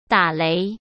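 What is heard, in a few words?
"打雷", Mandarin Chinese; hsk; chinese flashcards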